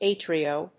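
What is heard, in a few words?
"a trio", English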